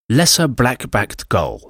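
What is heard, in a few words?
"lesser black backed gull", English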